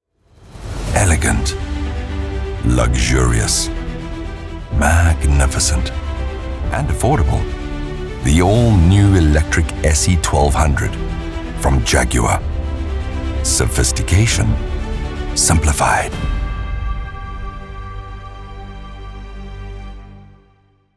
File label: English South African automotive